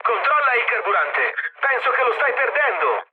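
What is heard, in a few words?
Italian tank damaged mood med, speech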